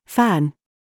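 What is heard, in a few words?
TRAP, words, British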